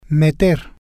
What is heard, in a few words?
"meter", Spanish